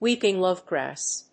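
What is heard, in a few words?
"Weeping lovegrass", English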